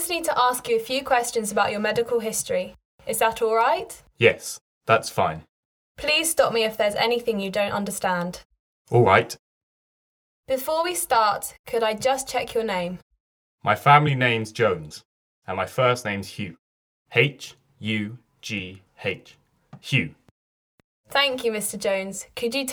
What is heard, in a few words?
Conversation; Bedside Manner Beginner